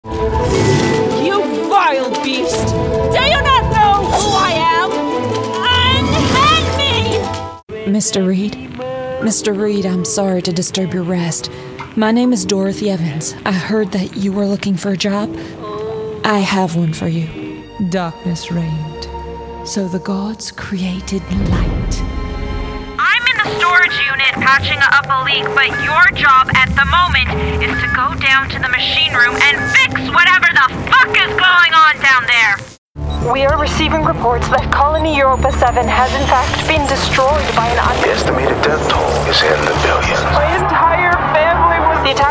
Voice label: english canadian video games

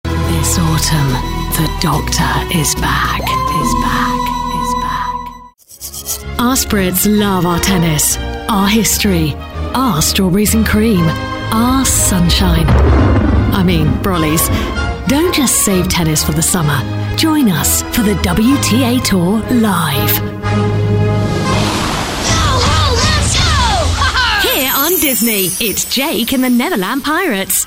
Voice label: english british tv shows

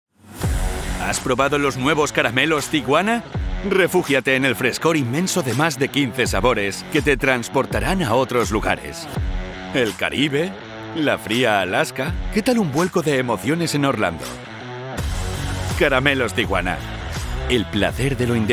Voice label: Spanish

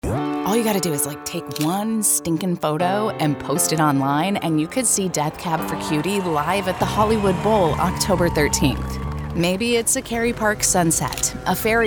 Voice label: english american radio imaging

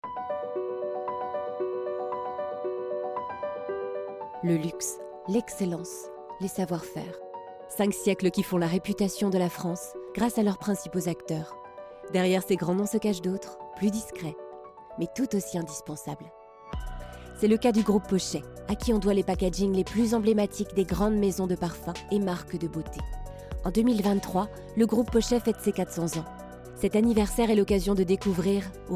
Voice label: french podcasts

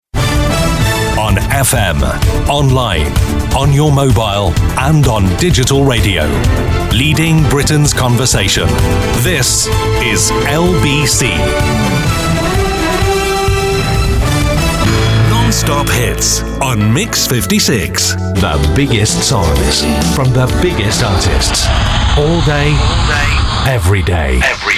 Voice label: english british radio imaging

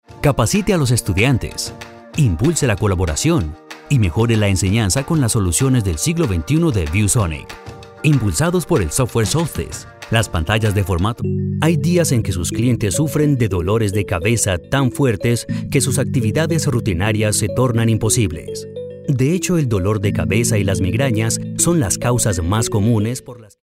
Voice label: spanish columbian narration

